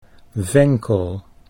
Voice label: "venkel", Dutch

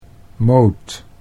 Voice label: "moot", Dutch

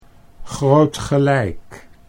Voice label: "groot gelijk", Dutch

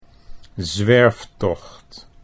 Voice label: "zwerftocht", Dutch

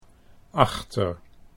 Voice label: "achter", Dutch; 'behind'

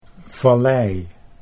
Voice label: "vallei", Dutch

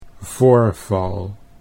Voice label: "voorval", Dutch

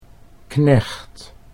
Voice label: "knecht", Dutch; 'servant' (male)

